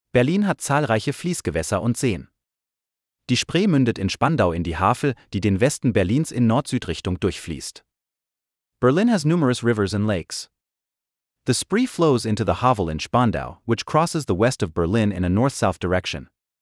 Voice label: multilingual native accent